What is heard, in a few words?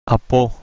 "apo", Modern Greek